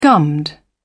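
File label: "gummed", British English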